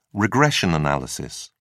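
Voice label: "regression analysis", British English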